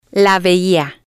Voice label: "la Veia", spanish

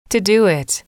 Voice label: to do it, English

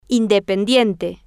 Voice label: "independiente", Spanish

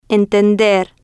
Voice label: "entender", spanish

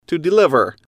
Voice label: "to deliver", English

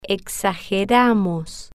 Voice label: "exageramos", spanish

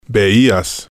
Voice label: "veias", spanish

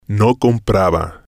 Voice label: "no compraba", spanish

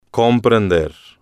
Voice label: "comprender", spanish

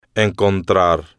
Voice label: "encontrar", spanish

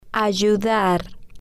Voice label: "ayudar", spanish

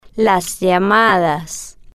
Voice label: "las Llamadas", spanish

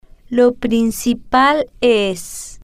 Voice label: "lo Principal Es", spanish

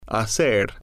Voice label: "hacer", Spanish

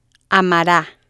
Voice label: "amara", spanish

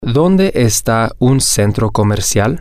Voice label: where is a mall, spanish, M

